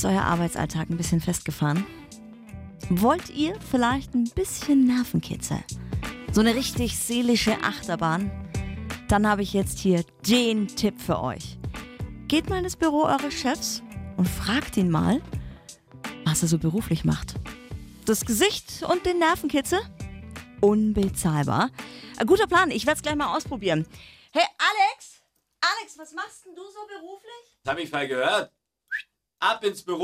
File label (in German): Radio Moderation